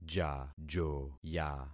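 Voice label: "jajoya", concatenated syllables; Affixing experiment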